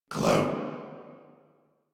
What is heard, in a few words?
Banter; Warframe; Clem; en